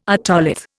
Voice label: "otolith", Indian

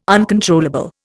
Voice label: "uncontrollable", Indian

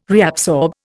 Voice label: "reabsorb", Indian

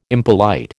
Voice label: "impolite", American

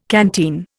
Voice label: "canteen", Indian

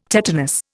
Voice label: "tetanus", Indian

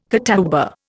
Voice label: "catawba", Indian

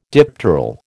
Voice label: "dipteral", American

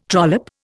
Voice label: "trollop", Indian

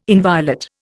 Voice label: "inviolate", Indian

